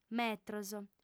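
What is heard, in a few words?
"metros", female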